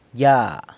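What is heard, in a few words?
"ya", up, San Lucas Quiaviní Zapotec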